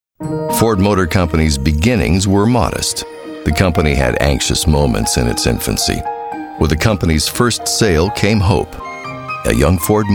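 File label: narration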